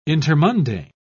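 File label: "intermundane", British English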